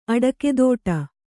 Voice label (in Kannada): "ಅಡಕೆದೋಟ", kannada